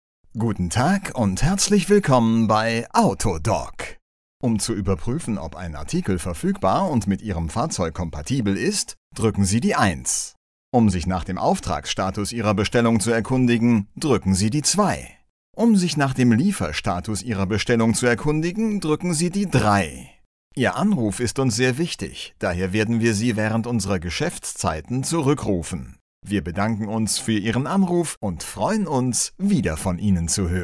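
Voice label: German, male, IVR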